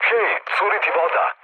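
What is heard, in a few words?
Serbian damaged water mood low, speech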